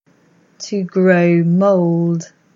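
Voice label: English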